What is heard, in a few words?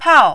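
"pao", Mandarin, fourth tone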